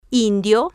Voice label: "indio", Spanish